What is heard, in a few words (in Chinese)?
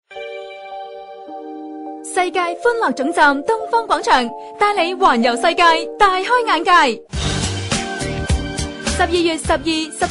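女粤（热情广告）; 知性; 粤语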